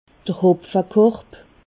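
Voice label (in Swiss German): "hopfekorb", Alsatian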